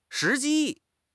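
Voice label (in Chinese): "时机", Chinese; HSK6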